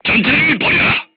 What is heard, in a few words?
voice message leave vehicle, korean, crew tanks